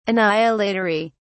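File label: "annihilatory", British English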